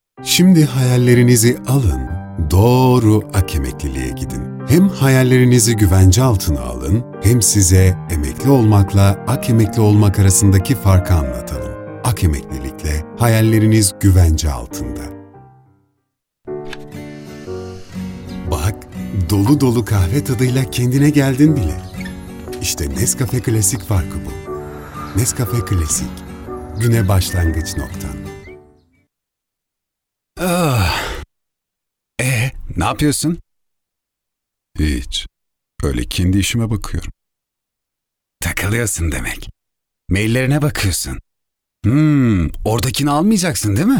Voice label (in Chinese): 土耳其语男; 外语